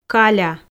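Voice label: "каля", Komi, female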